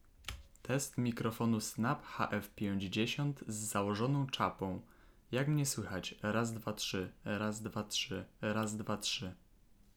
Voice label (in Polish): Jakość nagrania z założoną czapą odszumione, Mikrofon Snab Microtone HF-50